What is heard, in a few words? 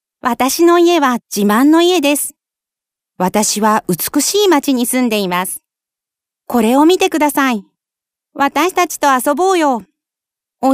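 Japanese female children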